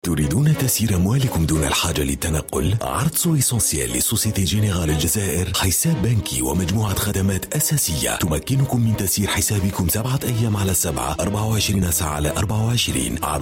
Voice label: arabic corporate videos